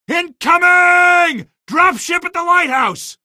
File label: drop lite, male; Source Engine; video game; coast; barn